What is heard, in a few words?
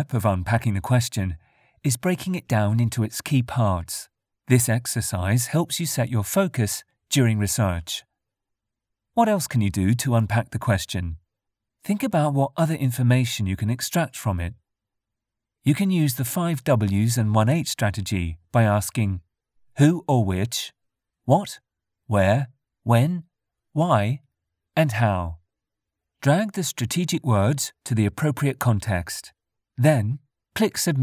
E-Learning for University